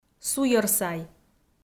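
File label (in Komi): "суйӧрсай", Komi, female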